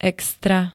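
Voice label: "extra", Slovak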